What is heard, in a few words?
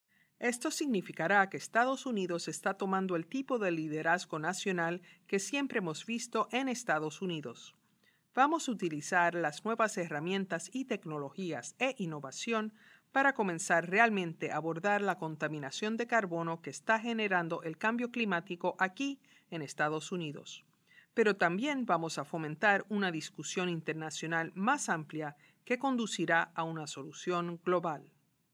spanish answer